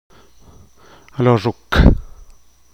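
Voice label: forearm, Teotitlán del Valle Zapotec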